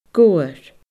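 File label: "gobhar", Scottish Gaelic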